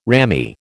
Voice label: "rammy", American